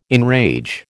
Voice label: "enrage", American